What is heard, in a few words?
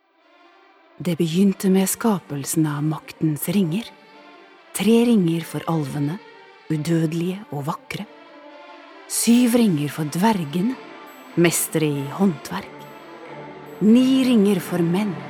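Norwegian movie trailer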